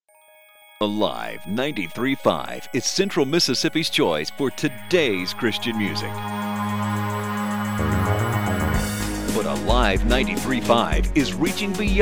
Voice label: Internet Promo